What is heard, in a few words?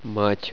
"mother", russian